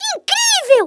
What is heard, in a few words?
"excellent", Portuguese; Worms Armageddon